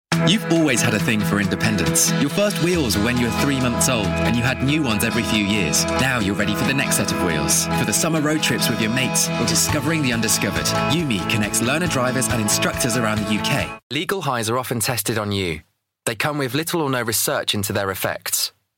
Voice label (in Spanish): Ingles Reino Unido Masculina Joven